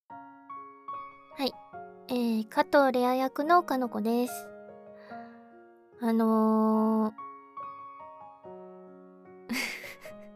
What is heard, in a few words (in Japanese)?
フリートーク, ASMR; せんせといっしょ～ささやきでおやすみ～